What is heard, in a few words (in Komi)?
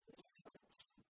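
"ми велӧдчим", Komi, female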